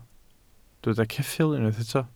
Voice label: "ceffyl", Welsh